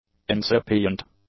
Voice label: "incipient", English; adjectives